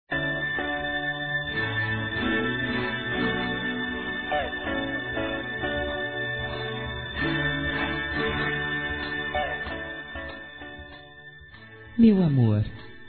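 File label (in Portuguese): Gritar seu nome, feminino; Telemensagens; Românticas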